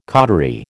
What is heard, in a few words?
"cautery", American